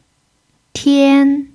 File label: "tian1", chinese